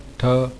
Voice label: "Tha", Hindi